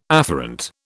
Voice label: "afferent", British